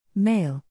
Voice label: male